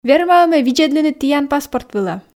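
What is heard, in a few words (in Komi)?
верма-ӧ ме видзӧдлыны Тіян паспорт вылӧ, Komi, female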